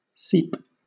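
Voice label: "seep", British English; pronunciations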